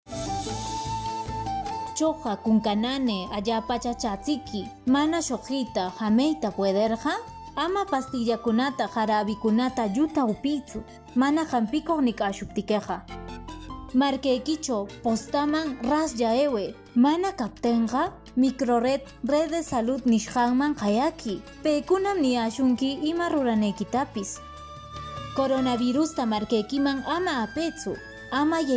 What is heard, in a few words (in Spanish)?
quechua ancash, spot de radio; en caso de presentar sintomas; sospecha o confirmacion de contagio